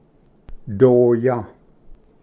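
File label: "doya", Cherokee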